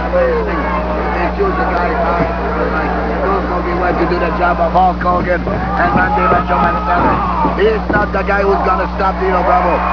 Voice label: gibberish; frenchy martin